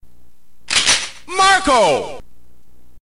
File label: narrator